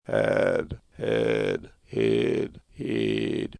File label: creaky